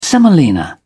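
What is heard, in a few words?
"semolina", English, British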